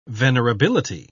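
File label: "venerability", British English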